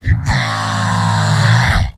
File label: jeers; Heavy; Heavy Robot audio responses